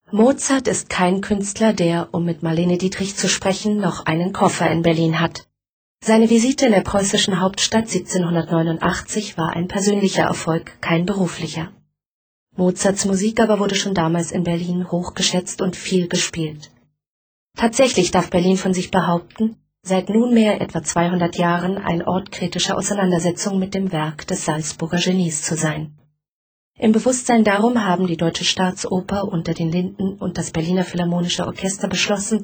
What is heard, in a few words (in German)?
feature, frauen; mozart